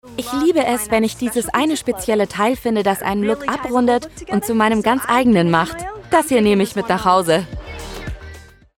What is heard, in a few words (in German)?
Werbung; Adobe